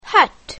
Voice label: "hut", english; grade1; basic sounds 1